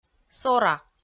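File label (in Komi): "сора", Komi, female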